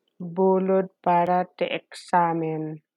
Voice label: Ilocano